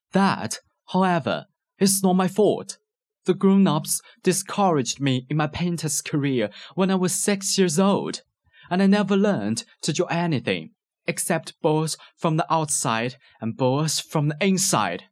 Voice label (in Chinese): 英文版英式; 小王子